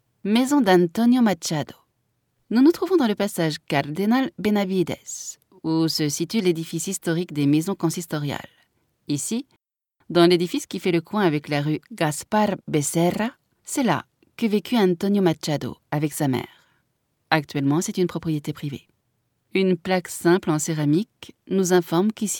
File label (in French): audioguia, frances; audioguia baeza